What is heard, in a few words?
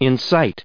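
"incite", US English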